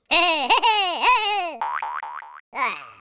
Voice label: taunt, Spanish